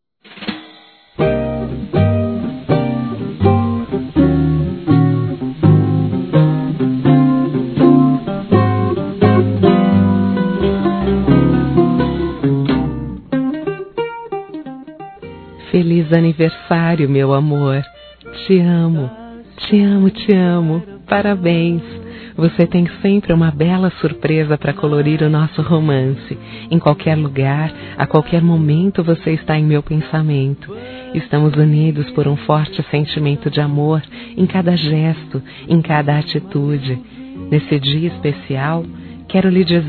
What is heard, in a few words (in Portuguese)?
ANIVERSARIO ROMANTICO F; Aniversários; fem